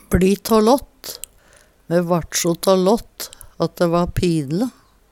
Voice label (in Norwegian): bli ta latt, Numedalsmål